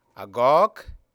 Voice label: "Ya", Dinka